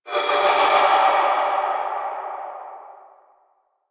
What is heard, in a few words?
Army Cast Vocal Layer; Warframe; Warframes; Hoplite